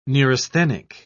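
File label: "neurasthenic", British English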